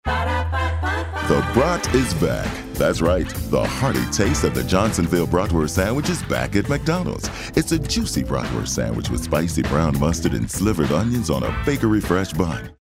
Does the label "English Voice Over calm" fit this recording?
No